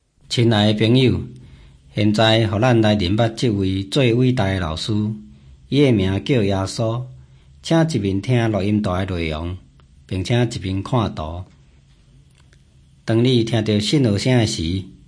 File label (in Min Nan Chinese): taiwanese, drama